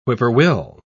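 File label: "whippoorwill", British English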